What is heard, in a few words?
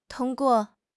"tong1 guo4", 汉语口语; 汉语口语新时代-初级下